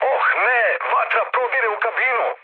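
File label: Serbian on fire mood med, speech; War Thunder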